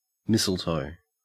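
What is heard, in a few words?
"mistletoe", Australian English; pronunciations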